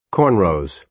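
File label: "cornrows", English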